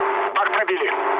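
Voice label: tank damaged, mood low, Russian; War Thunder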